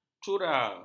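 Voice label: "cura", Malay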